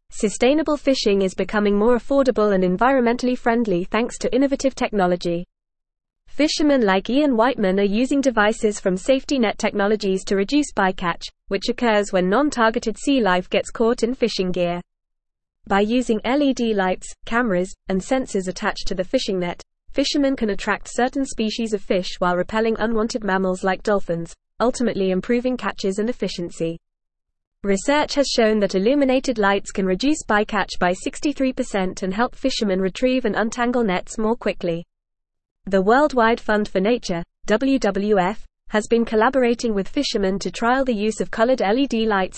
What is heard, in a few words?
English Newsroom Advanced FAST Reading; Advancements in Fishing Technology for Sustainable Practices